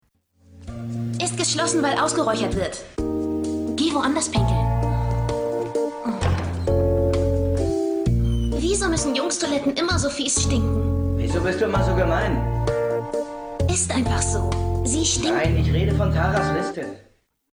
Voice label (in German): Synchron